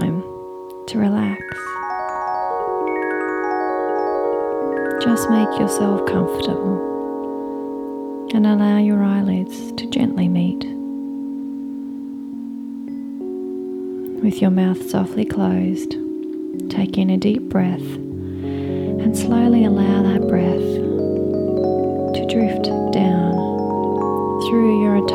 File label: Relaxation; HypnoBirthing Institute AU; Rainbow Relaxation